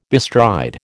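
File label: "bestride", American English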